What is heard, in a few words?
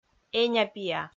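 "эня-пиа", Komi, female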